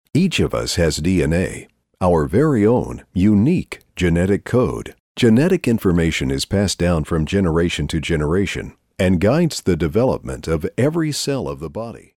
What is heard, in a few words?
Sprecher Nativspeaker